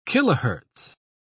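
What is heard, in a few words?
"khz", British English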